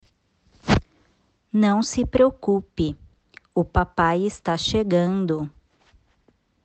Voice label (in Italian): Portoghese